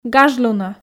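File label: "гажлунӧ", Komi, female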